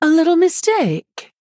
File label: Oops, Female Human; en